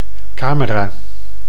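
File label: "camera", Dutch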